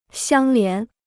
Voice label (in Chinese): "相连", Chinese